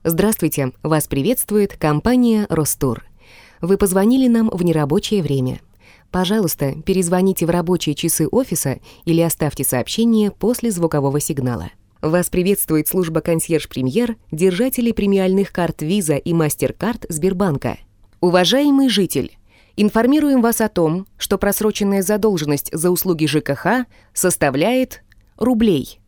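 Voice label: IVR Russian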